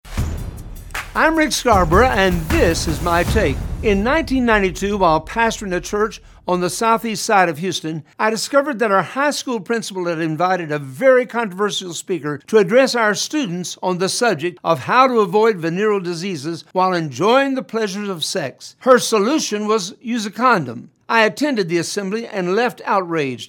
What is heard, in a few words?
Radio Spot